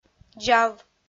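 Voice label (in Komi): "дзав", Komi, female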